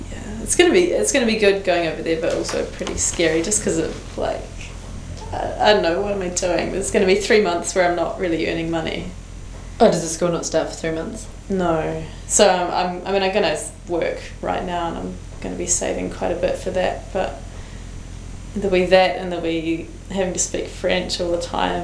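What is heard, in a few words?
conversation, New Zealand English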